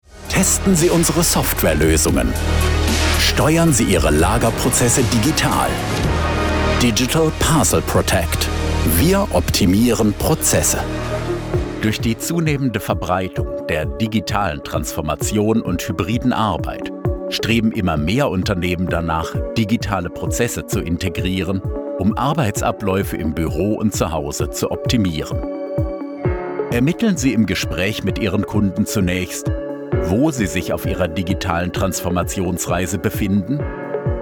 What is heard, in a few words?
Corporate Reel German